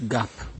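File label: "gap", Nigerian Pidgin, male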